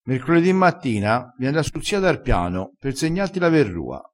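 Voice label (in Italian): "segna", dialettale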